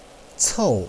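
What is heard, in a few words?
"cou", Mandarin Chinese, fourth tone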